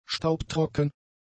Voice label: "staubtrocken", German; adjectives

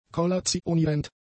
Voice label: "kollationierend", German; adjectives

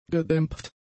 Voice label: "gedämpft", German; adjectives

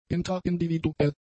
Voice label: "intraindividuell", german; adjectives

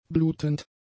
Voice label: "blutend", German; adjectives

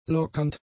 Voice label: "lockernd", German; adjectives

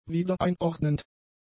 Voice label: "wiedereinordnend", German; adjectives

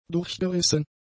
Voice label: "durchgerissen", German; adjectives

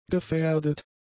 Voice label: "gefährdet", German; adjectives

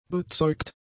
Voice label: "bezeugt", German; adjectives

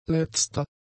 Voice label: "letzter", German; adjectives

